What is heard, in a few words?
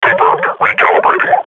combat idle, grunt; Half Life Model Pack